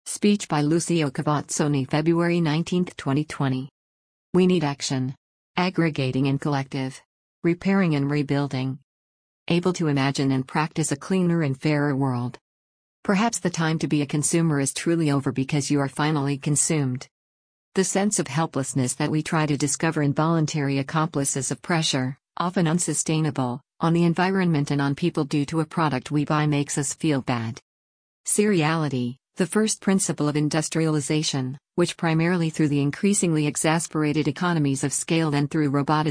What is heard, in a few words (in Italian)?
Inglese